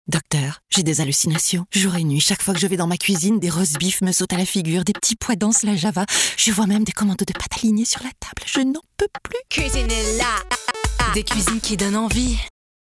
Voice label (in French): pub radio; Cuisinella